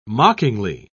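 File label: "mockingly", British English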